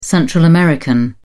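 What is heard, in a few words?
"central american", British English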